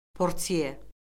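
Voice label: "portye", Azerbaijani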